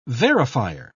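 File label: "verifier", British English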